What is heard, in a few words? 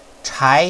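"chai", Mandarin Chinese, second tone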